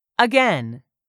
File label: "AGAIN", english; 3000 words